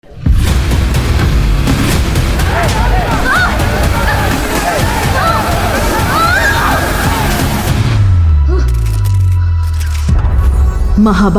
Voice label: Tamil Dialogue Ringtone; Thalaivi Trailer